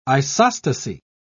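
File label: "isostasy", British English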